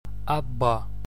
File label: broad b, gaelic